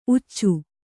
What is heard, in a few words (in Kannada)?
"ಊಚಿ", Kannada